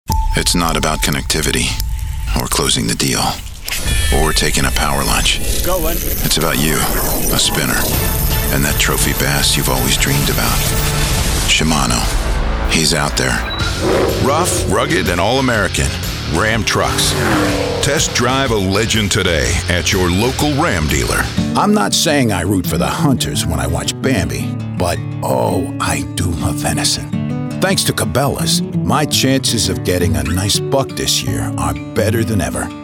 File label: Voice Talent Commercial; Commercial Demo